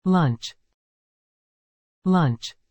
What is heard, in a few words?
"lunch", English